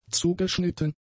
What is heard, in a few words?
"zugeschnitten", German; adjectives